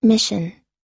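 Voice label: "adopt", US English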